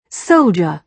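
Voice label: "soldier", British English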